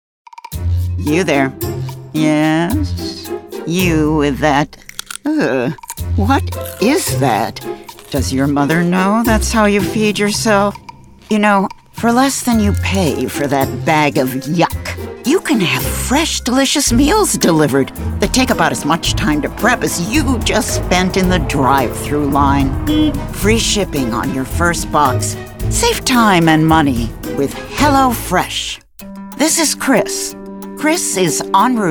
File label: Voice Actor Explainer